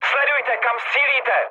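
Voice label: player friendly fire, Czech, mood med; War Thunder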